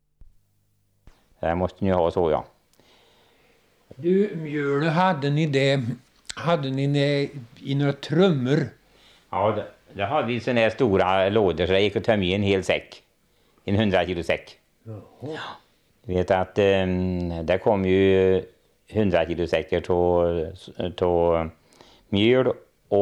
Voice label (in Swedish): Lysvik, dialekter; Svenska sagor; Folkminnen